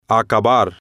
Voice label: "acabar", spanish